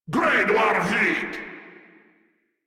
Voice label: Reload, Grineer Male; Warframe

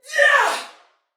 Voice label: Attack Heavy Female; Dialog; Barks; Battle; Sinecure

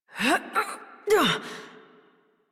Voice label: Horse Mount Adult; Warframe; Duviri

